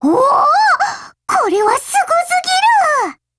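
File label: COMBINE, Japanese; ART556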